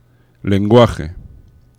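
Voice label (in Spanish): "lenguaje", Spanish; laboratori fonetica; paraules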